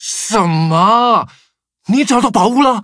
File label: touch, zh-hant; cookie run